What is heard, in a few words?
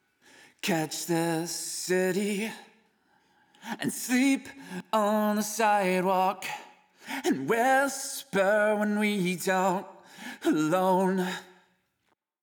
processed vox solo; mic positioning